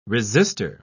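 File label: "resister", British English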